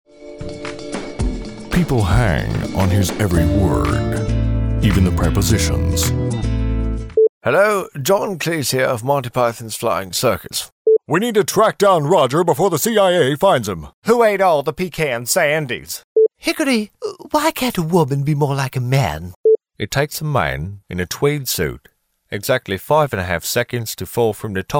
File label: animation